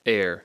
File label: "heir", English